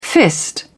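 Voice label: "fist", British English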